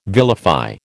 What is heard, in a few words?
"vilify", American